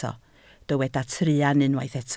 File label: "truan", Welsh